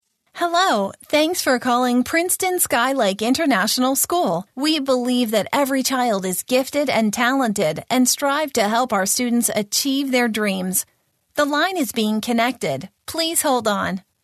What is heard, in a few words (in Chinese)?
孩子气 幼儿园和小学彩铃 中英文